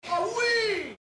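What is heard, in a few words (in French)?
"oh oui", French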